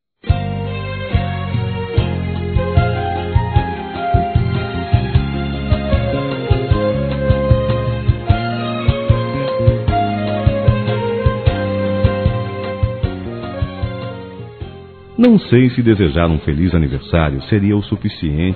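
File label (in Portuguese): Masculino, Telemensagens Aniversários; PADRINHO; Telemensagens 2017; Aniv Familiar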